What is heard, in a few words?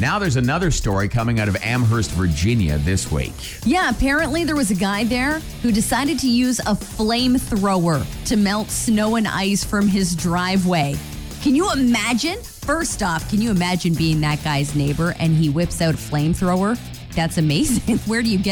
Morning Show Promo; ROCK